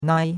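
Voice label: "nai", Chinese; Cours-de-Chinois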